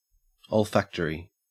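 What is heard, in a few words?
"olfactory", Australian English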